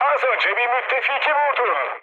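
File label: Turkish player killed ally, mood med, speech; War Thunder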